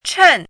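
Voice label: "chen" fourth tone, pinyin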